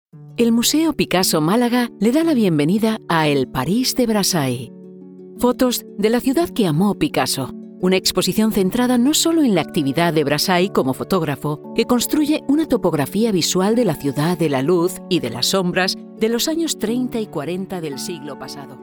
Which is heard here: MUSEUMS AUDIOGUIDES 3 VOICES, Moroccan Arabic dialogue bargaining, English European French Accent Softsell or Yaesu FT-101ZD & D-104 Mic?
MUSEUMS AUDIOGUIDES 3 VOICES